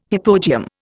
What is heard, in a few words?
"hypogeum", Indian